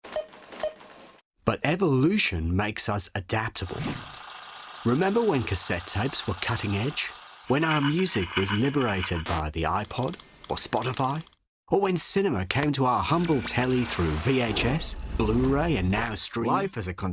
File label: english australian corporate videos